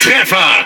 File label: Speech Hit Confirmation, DE; World Of Warships